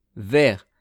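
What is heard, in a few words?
"vert", French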